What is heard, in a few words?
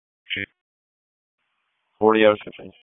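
Wake County EMS Dispatch, p25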